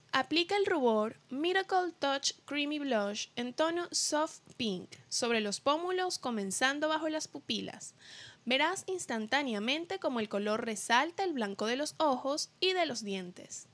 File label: SPANISH